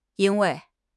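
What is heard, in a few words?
"yin1 wei4", Mandarin Chinese; HSK2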